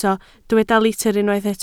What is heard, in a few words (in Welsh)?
"litr" Welsh